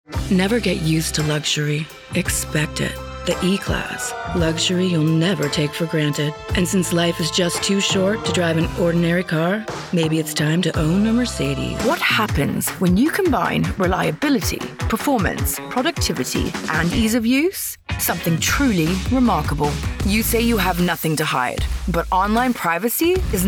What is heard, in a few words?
commercial; TaDa